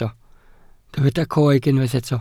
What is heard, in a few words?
"coeg", Welsh